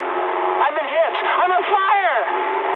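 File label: English on fire mood high; War Thunder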